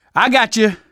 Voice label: revive friend, survivor voice manager; Left 4 Dead 2; left4dead2 dlc3